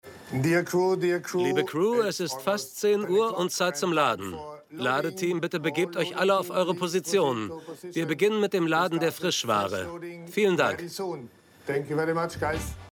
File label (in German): Voiceover Sehnsucht Segeln; LOFT VOICES